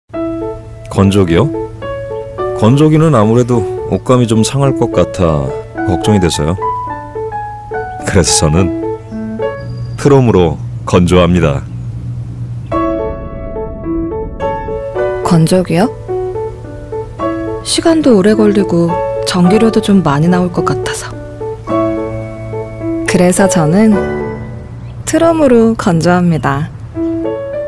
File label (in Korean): 일반인스러운 LG 트롬건조기 20대